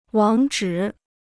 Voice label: "网址", Chinese

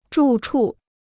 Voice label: "住处", Chinese

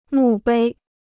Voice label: "墓碑", Chinese

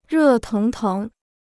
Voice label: "热腾腾", Chinese

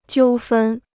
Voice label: "纠纷", Chinese

